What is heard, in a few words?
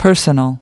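"personal", English word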